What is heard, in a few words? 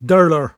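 "durler", Cromarty Fishertoun dialect; [dUR-lur]; Word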